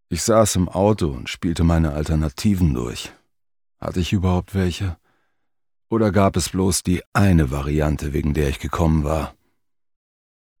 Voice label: Audiobook (Hörbuch), German; Blut und Zorn; Norddeutsch

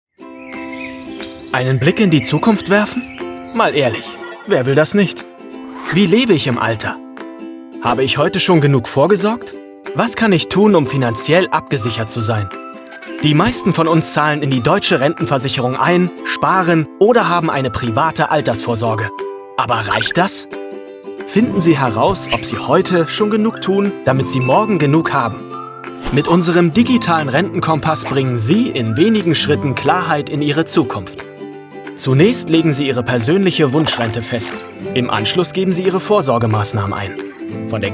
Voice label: Erklaerfilm; Allianz

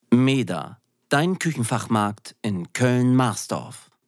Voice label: Werbung Radio

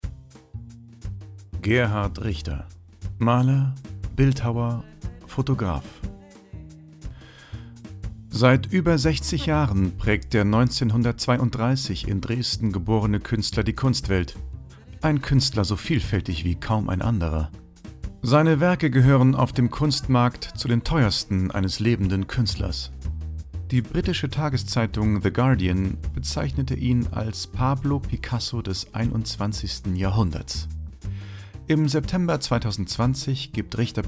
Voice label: Biographie Doku

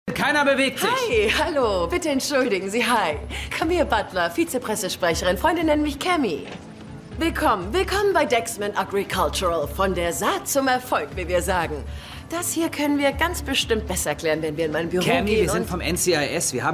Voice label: aufgedreht; Synchron; Navy CIS LA; LOFT VOICES

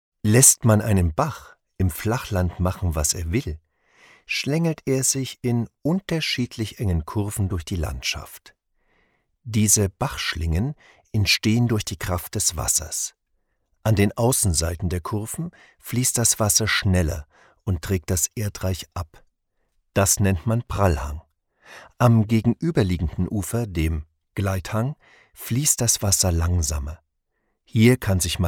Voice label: Erklaerfilm; Baeche

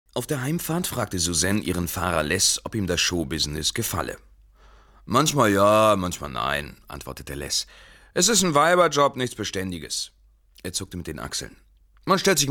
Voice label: erzaehlung

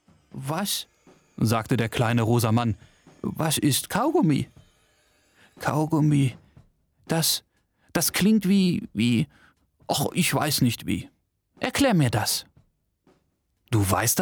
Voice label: Hoerbuch Kinder; FreddyBee

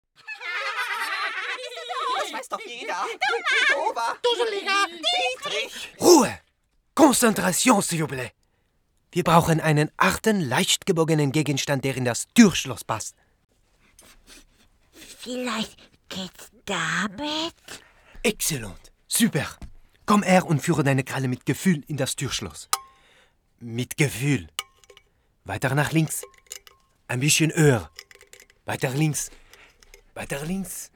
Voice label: Hoerspiel; Futterkammer

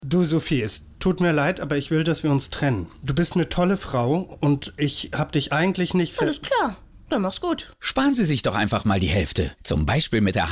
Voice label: trennung funk; hvv

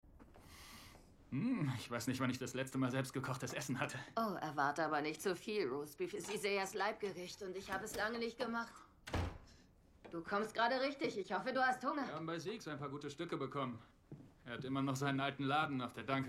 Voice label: intensiv wütend; Seven Seconds

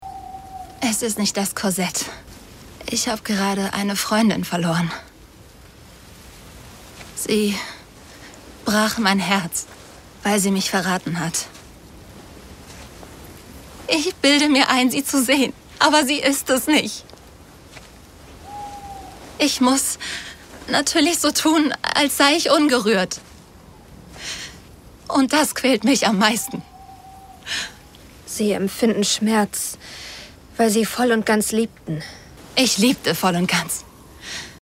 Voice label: Synchron Historisches Drama aufgewuehlt

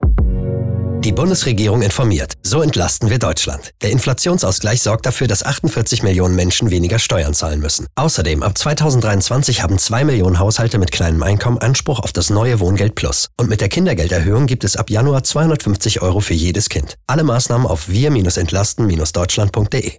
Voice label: Funkspot; WEBRADIO; Bundespresseamt; Entlastungspaket III

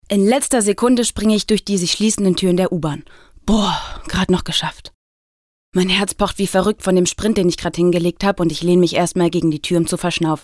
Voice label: Hoerbuch; Die Fahrausweise

